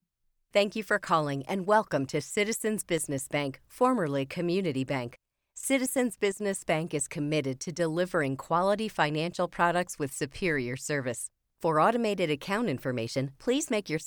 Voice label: Telephony, voiceover